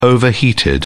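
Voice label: "overheated", British English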